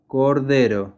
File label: Lamb spanish